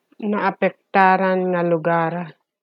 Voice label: Ilocano